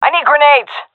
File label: request grenade, seal fem; vo; Counter Strike Model Pack; CS:GO